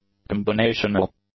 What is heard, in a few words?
"combinational", English; adjectives